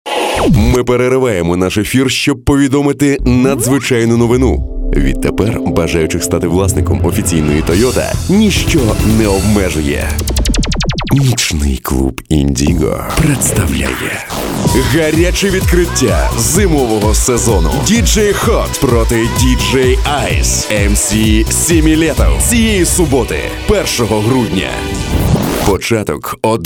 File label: ukr